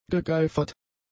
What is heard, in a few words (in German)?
"gegeifert", german; adjectives